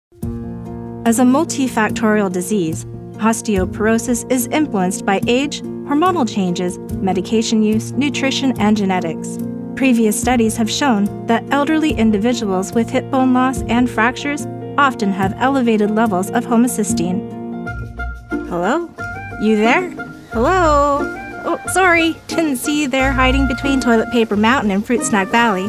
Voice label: E-LEARN, Canadian Commercial Voice Actor